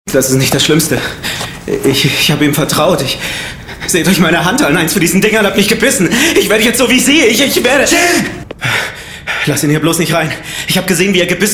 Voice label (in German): synchron panisch verzweifelt aengstlich; dead cert